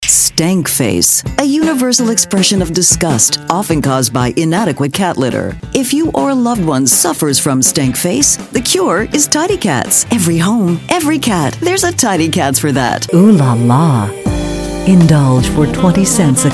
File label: COMMERCIAL